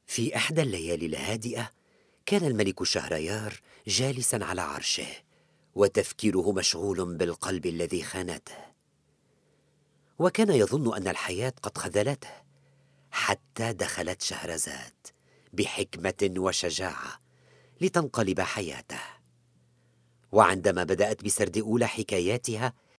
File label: arabic lebanon voiceover locutor arabe